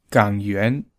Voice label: "gang yuan", Mandarin